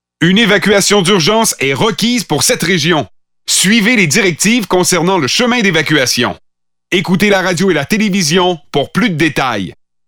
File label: Pathfinder French Standard Canadian